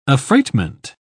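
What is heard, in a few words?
"affreightment", English, uk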